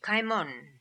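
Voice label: "Kaimon", pronunciations; Fourth Way; Beelzebub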